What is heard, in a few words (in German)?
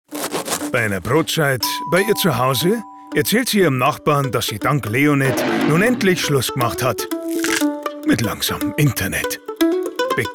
werbung bayerisch; LOFT VOICES